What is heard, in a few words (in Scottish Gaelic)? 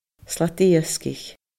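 "slat iasgaich", Scottish Gaelic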